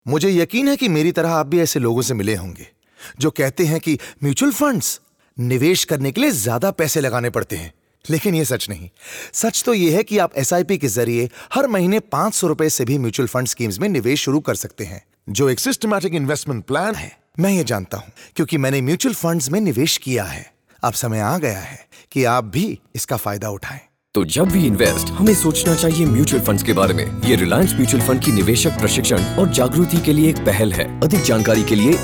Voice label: Hindi; Reliance Mutual Funds